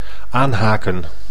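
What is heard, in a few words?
"aanhaken", Dutch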